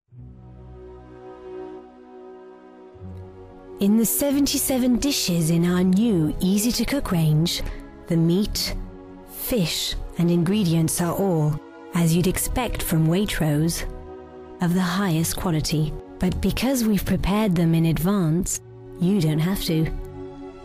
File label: advert (English); Waitrose